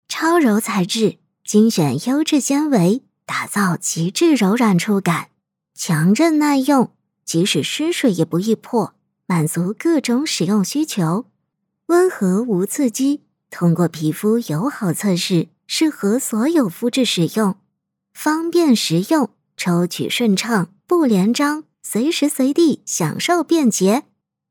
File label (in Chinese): 年轻活泼超柔纸巾广告, 女, 普通话